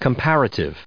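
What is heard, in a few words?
"comparative", US English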